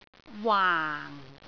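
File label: "waang4", Cantonese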